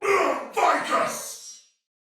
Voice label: Damage Low Chance Soldier Male, Battle; Barks; Dialog